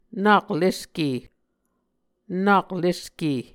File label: "nak leske", Muscogee